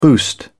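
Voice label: "boost", British English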